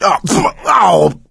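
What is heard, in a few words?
boomer reaction, voice, male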